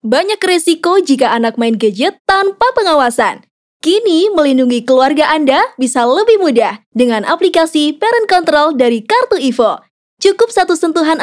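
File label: casual Indonesian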